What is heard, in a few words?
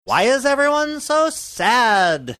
why is everyone so sad obnoxious